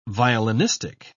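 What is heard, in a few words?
"violinistic", English, British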